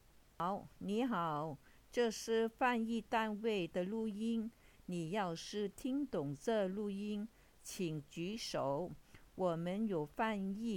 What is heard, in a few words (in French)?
Mandarin